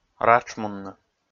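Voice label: "ратшмунны", Komi, male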